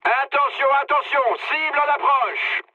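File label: near waypoint, mood high, French; War Thunder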